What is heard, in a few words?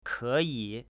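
"keyi", Chinese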